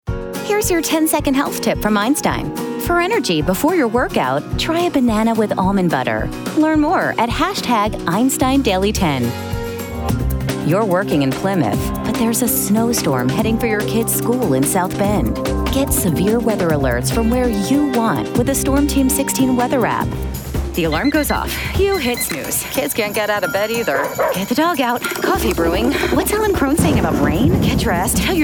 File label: TV Promo